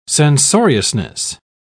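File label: "censoriousness", British English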